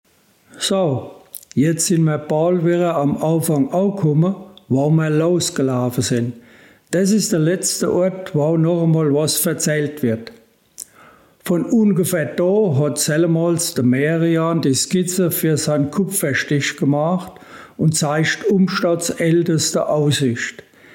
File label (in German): Mundart; Marian-Blick